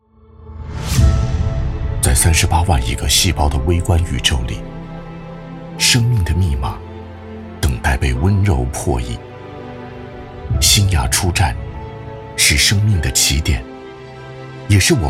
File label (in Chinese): 男 宣传片 企业展厅序厅影片 沉稳感情; 明德生物企业展厅序厅影片